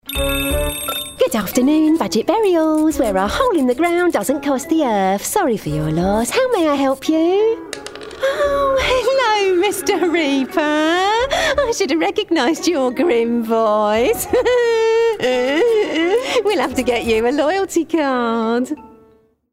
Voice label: Animation Receptionist Essex

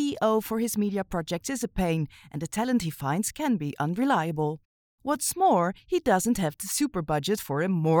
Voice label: Enthusiastic Voice English Mid European

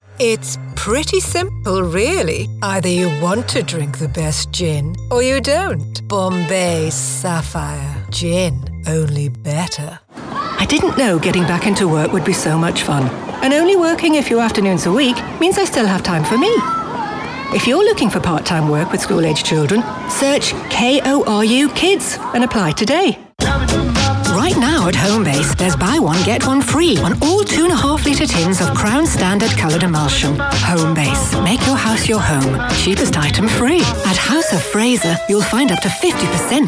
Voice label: Commercial Gin

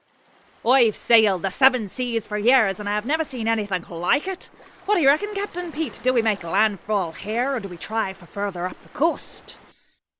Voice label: Pirate

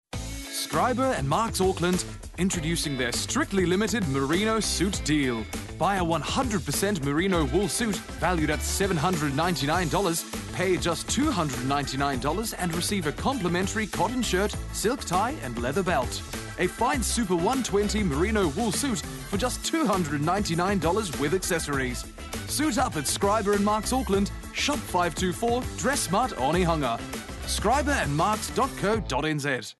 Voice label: RP Read

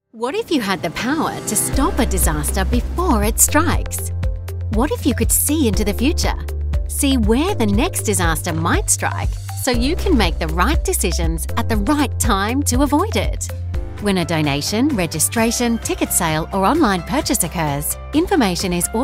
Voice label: Explainer Visual

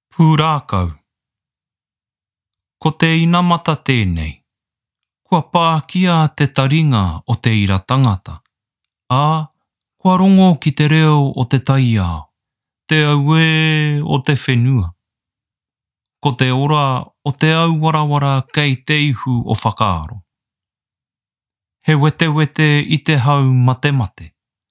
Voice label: Voice Actor; BigMouthVoices

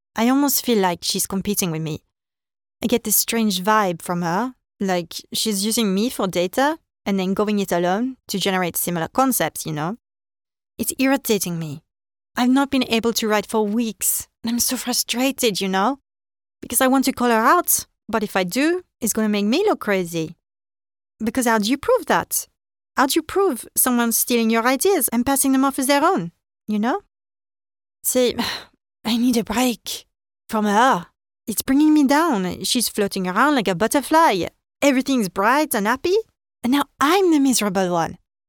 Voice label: French accent

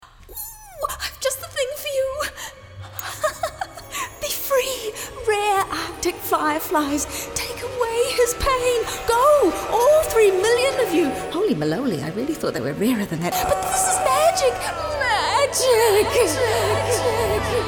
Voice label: Comedy example

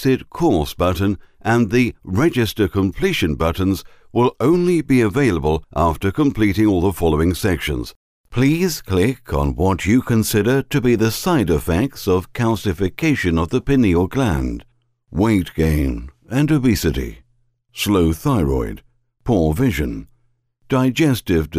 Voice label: TRAINING eLEARNING UK Voiceover

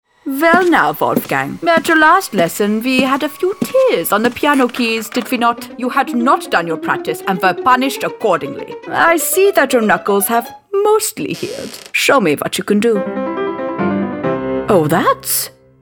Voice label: Animation; INGRID

